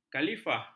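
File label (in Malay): "khalifah", Malay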